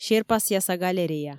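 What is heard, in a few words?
"серпасъяса галерея", Komi, female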